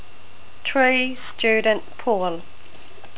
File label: tree, NZ English